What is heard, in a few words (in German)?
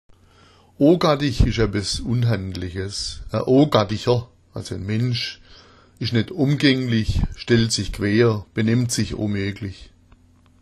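"ogattich", schwäbisch; ogattich ist etwas unhandliches, ein Ogatticher (Mensch) ist nicht umgänglich, stellt sich quer, benimmt sich unmöglich.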